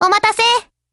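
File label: "hello", Japanese; GF Alarm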